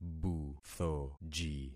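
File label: "buthoji", concatenated syllables; Affixing experiment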